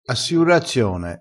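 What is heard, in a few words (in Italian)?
"assiurazione", vocabolario dialettale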